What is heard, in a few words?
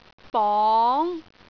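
"bong2", Cantonese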